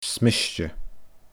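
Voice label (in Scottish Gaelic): "smiste", Scottish Gaelic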